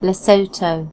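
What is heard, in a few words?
"Lesotho", British English; gcompris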